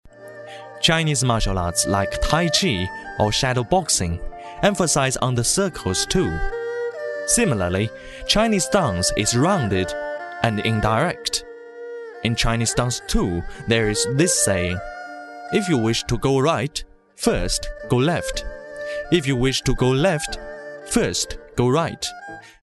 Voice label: english chinese accent